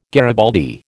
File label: "garibaldi", American